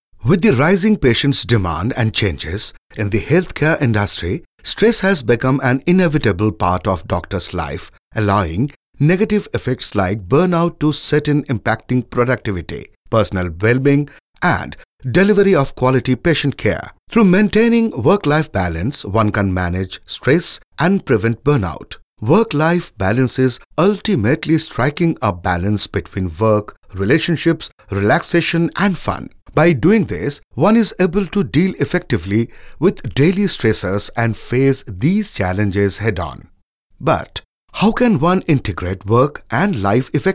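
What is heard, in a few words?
English male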